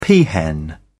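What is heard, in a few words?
"peahen", British English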